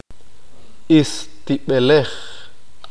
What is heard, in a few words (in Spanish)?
"pelo de cuerpo", qeqchi